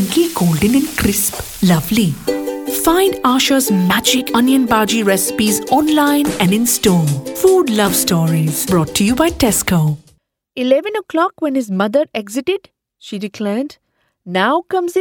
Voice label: English with Indian Accent